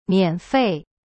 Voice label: "免费" mian fei, chinese